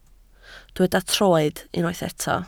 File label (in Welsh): "troed", Welsh